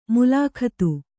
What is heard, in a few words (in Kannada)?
"ಮುಲಾಖತ್ತು", kannada